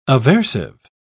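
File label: "aversive", British English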